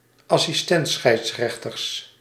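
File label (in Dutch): "assistent-scheidsrechters", Dutch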